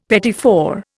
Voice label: "petit four", Indian; Dictionary

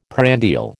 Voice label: "prandial", American

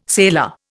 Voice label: "sailor", Indian

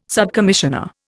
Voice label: "subcommissioner", Indian

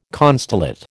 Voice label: "constellate", American

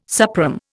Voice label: "suprÈme", Indian

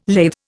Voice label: "lathe", Indian

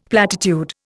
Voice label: "platitude", Indian; Dictionary

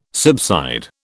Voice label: "subside", British

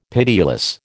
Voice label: "pitiless", American

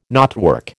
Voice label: "knotwork", American

